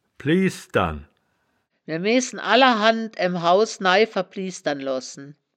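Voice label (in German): "pliesdern", wittlicher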